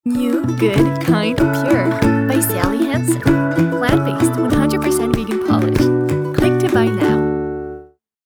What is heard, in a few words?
English Ad